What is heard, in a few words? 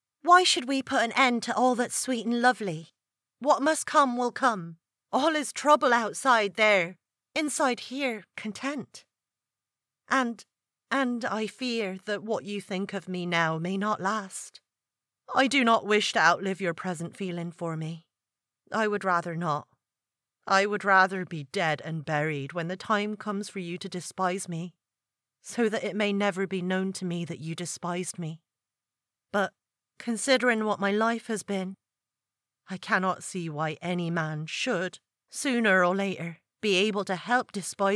West Country; Tess Of The DUrbervilles